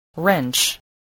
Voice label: "wrench", spelling